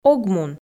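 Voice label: "ог мун", Komi, female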